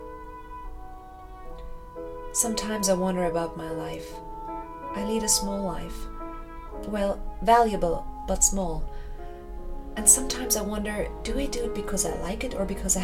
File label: English character